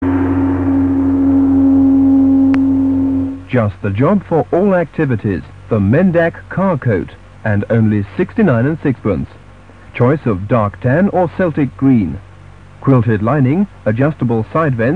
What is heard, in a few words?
Radio commercial; Radio 390; Mendac coats